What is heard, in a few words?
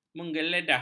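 "menggeledah", Malay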